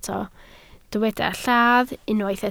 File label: "lladd", Welsh